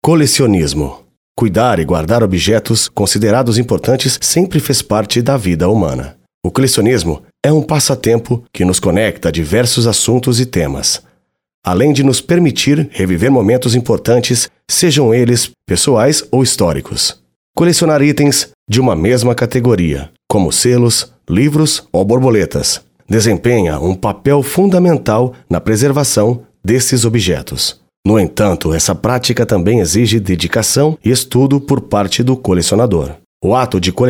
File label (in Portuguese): audio guia, voz masculina; painel 4 colecionismo; exposicao casa das borboletas